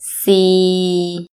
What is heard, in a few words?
four, Thai; thai numbers